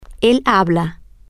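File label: Spanish, this is he, female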